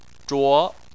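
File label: "zhuo" Chinese, second tone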